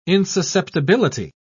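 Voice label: "insusceptibility", British English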